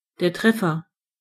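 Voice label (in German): "hit", German; gcompris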